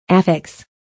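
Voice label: "affix", English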